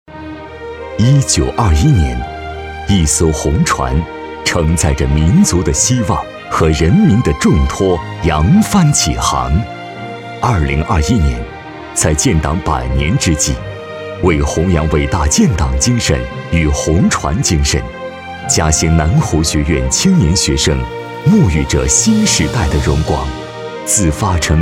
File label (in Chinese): 男 学校 大气 稍希望; 嘉兴南湖学院